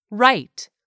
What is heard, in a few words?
"write", English word; 3000 words